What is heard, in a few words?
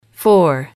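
"four", English, female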